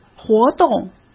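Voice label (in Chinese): "活动", Mandarin Chinese; hsk; chinese-flashcards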